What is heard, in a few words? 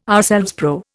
"ourselves", Indian